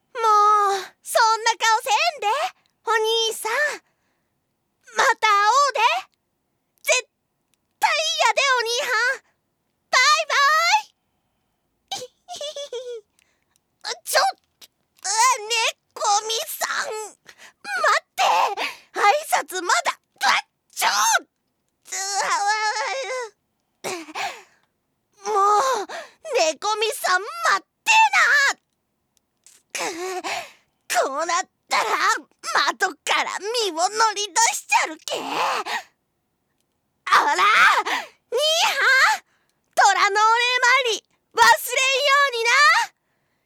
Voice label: 関西風 台詞色々; ジト目で爆乳JKうさぎっこ 首輪ちゃんのわけありや 初接客; とらこ; 関西風プク弁イケイケかぶきっ虎; 口伝のお見送り・かぶきっ虎; キャラ紹介音声

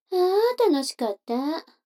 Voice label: 女の子 台詞

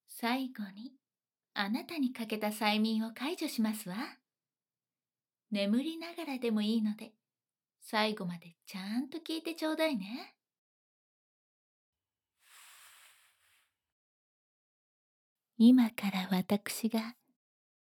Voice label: 催眠解除, ASMR

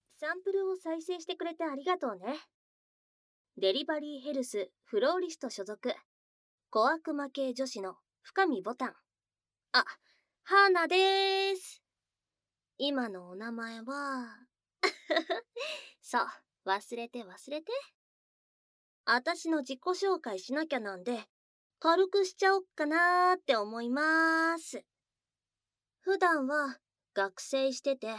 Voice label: 自己紹介, ASMR

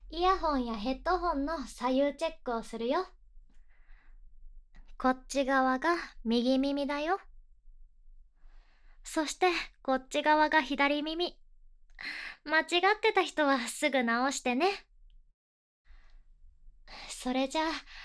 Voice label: LRチェック

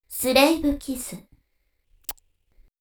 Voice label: スレイブキス…チュッ…, ASMR; 魅惑の美少女妖魔ロックブーケ

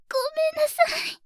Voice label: ごめんなさい…, 妹; ハイグレボイス集

